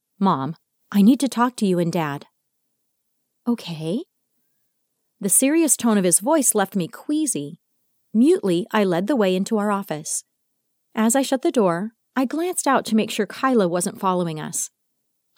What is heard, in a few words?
Audiobook Reel